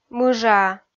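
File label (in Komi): "мыжаа", Komi, female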